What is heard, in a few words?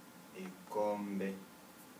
"Cup", Kibembe